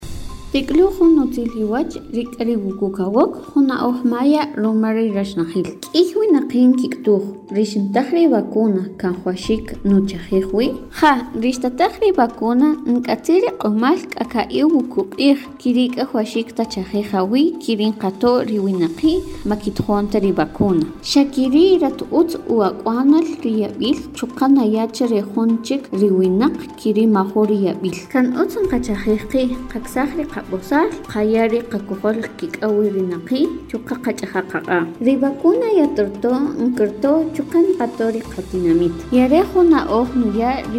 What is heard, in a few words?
SPOT KAQCHIKEL